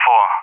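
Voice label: "4", English speech; Digits; Allied Flight; DCS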